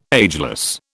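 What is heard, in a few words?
"ageless", British English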